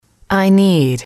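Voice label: "I need", English, female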